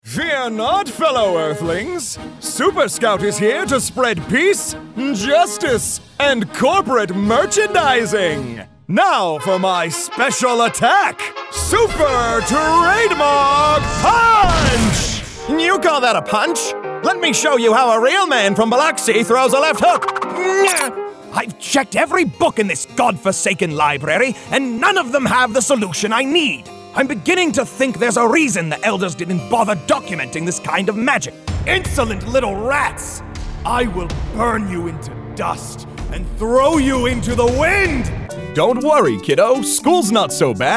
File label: Animation, Voice; Commercial